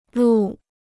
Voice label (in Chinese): "录", Chinese